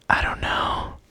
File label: "I don't know" whisper male